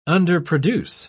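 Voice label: "underproduce", English, British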